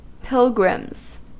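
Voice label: "pilgrims", American English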